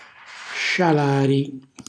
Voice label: "scialari", Calabrese; cucina calabrese